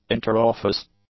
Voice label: "interoffice", english; adjectives

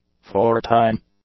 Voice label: "four-time", English; adjectives

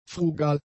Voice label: "frugal", German; adjectives

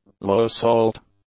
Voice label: "low-salt", English; adjectives

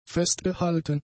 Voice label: "festgehalten", german; adjectives

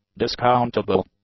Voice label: "discountable", English; adjectives

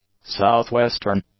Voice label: "south-western", english; adjectives

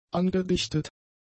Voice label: "angedichtet", German; adjectives

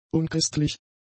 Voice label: "unchristlich", German; adjectives

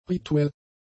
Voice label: "rituell", German; adjectives

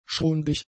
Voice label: "schrundig", German; adjectives

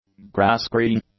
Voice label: "grass-green", english; adjectives

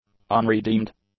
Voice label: "unredeemed", english; adjectives

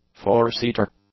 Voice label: "four-seater", English; adjectives

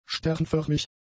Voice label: "sternförmig", German; adjectives

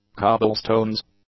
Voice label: "cobblestones", English; nouns

